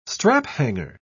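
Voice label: "straphanger", British English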